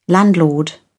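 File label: "landlord", British English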